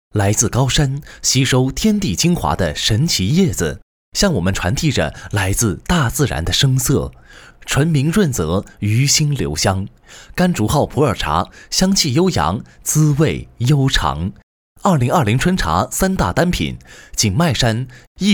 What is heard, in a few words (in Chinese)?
商品广告